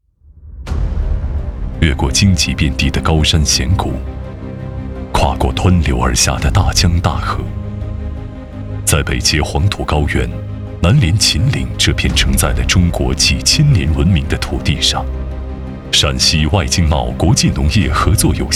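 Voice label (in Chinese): 男国大音-宣传片; 陕外经贸国际农业合作有限公司宣传片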